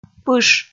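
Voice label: "пыш", Komi, female; kpv audio dict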